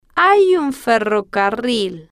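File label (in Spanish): hay un ferrocarril, Spanish, female, slow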